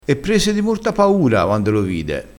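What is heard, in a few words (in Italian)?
"morto", dialettale crespinese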